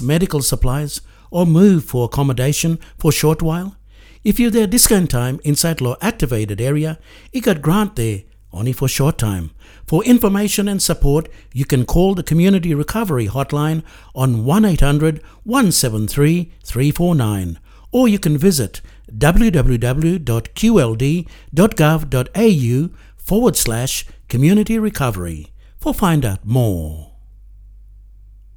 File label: yumplatok emergency hardship assistance